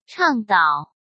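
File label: "倡导" Mandarin Chinese; hsk; chinese-flashcards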